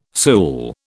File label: "sool", British